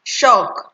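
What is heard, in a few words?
"shock", Nigerian Pidgin, female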